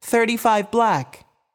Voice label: voice Over, roulette; americanroulette3